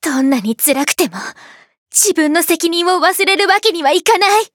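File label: start, Japanese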